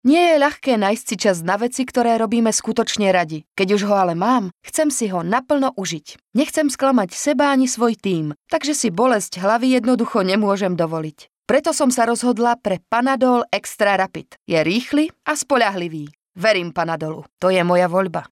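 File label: Female Slovak commercial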